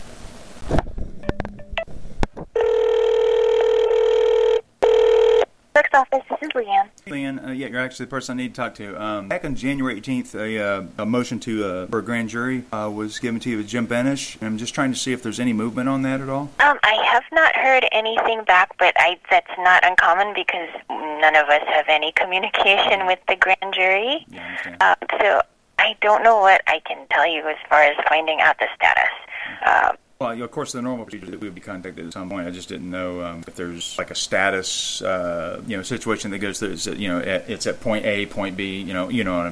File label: Phone